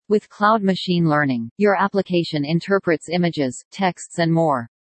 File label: en-US Standard E